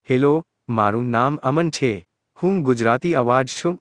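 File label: Gujarati, India; iOS 26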